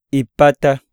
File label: tendon, iyasa